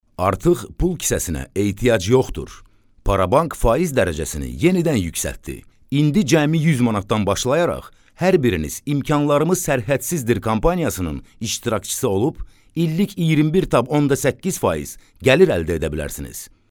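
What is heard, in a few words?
Azerbaijani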